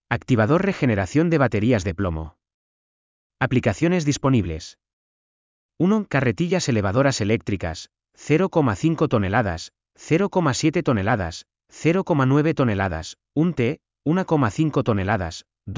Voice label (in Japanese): ナレーター スペイン語